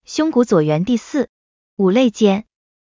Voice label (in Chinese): 配音